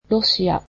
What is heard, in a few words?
"Russian", japanese